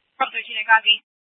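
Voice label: police dispatch, Scottsdale PD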